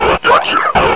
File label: combine soldier unused announce; Hl2 proto